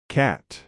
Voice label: "cat", English